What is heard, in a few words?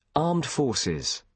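"armed forces", British English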